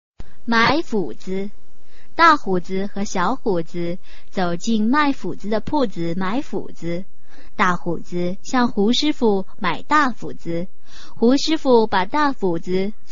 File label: chinese tongue twister